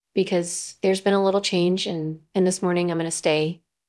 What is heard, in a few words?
Voice Acting es